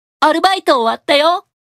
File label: Job Notification Voice; Asmodeus